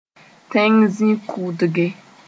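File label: "tẽng zĩ-kʋʋdgẽ", Mooré; pole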